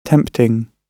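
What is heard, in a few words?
"tempting", British English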